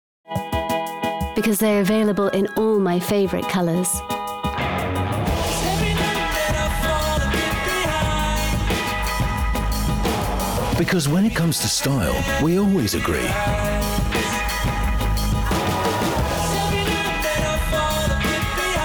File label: uk loafer; deichmann 2014; bbc